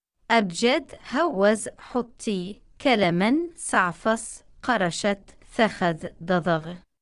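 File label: alphabets abjad, Arabic, female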